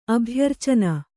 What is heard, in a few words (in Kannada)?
"ಅಭ್ಯರ್ಚನ", Kannada